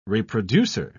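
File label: "reproducer", British English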